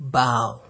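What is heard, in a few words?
"bow", Nigerian Pidgin, male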